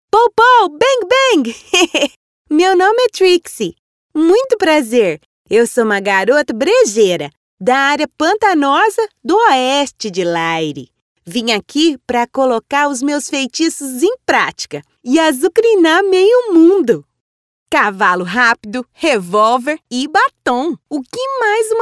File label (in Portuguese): demo personagens e infantil